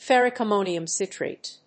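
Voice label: "ferric ammonium citrate", English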